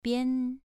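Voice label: "bian" Mandarin, second tone, pronunciation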